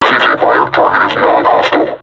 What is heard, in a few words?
taunt, vo combine suppressor; Half Life Alyx